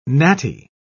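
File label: "gnatty", British English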